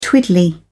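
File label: "twiddly", English, British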